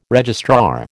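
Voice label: "registrar", American English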